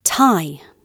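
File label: "tie", British English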